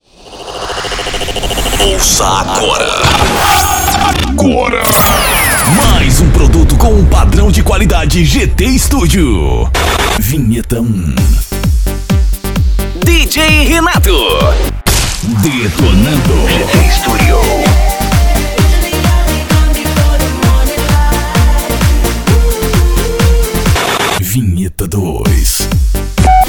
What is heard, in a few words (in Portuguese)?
vinhetas prontas para dj voz; gtestudio